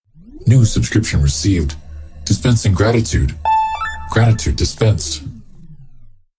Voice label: new subscription dispensing gratitude male normal personality